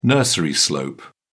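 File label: "nursery slope", English, British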